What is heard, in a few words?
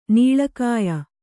"ನೀಳಕಾಯ", kannada